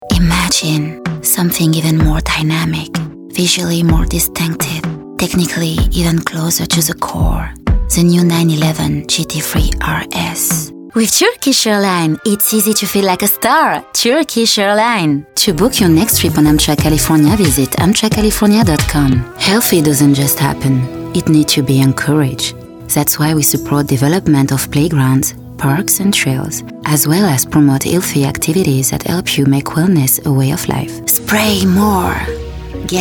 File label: french euro accented english